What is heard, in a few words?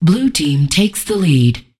Voices Announcer Status